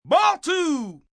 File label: Sports Ball, umpire, male, baseball; Talking